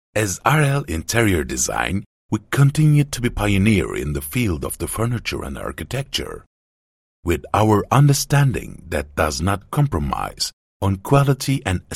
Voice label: english vo